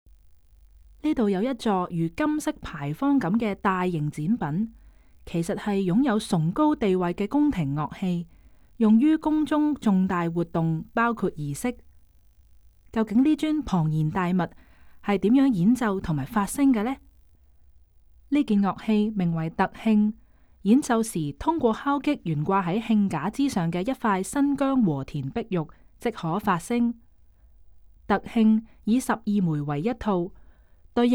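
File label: Cantonese, audio guide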